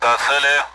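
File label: Speech Sound Russian Common AWACS; DCS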